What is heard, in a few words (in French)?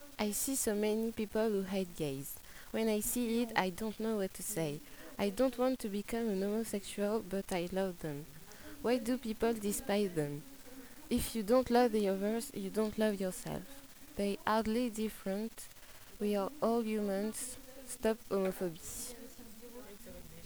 Anglais Enregistrement